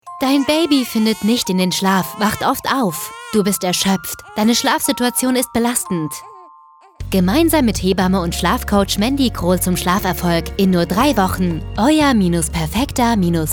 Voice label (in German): Funkspot